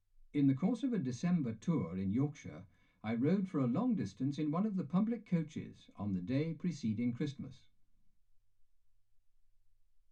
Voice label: male speech english